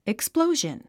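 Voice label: "explosion", English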